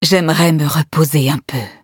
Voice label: defeat, fr; cookie run

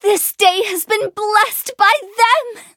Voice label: victory en

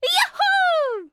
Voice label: levelup ja; cookie run

